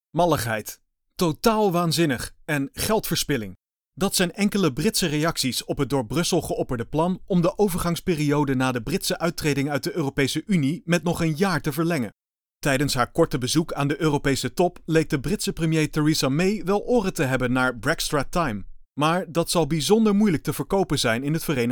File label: voice sample dutch; Brexit